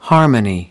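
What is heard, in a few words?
"harmony", US English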